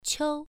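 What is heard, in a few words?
"qiu", Mandarin Chinese syllable, first tone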